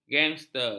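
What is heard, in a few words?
"gengster", Malay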